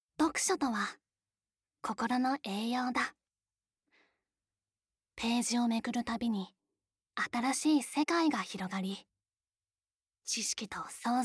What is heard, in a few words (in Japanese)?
ナレーション 読書; ナレーション③読書